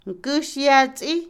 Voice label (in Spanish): ventana, Otomí, mujer; Casa; INALI